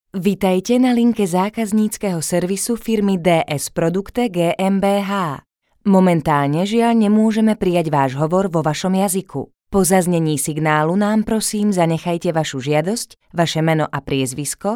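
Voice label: Slovak, female, IVR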